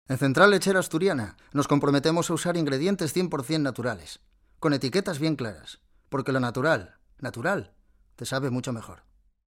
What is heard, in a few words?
male spanish castilian asturian accent; Devine Voices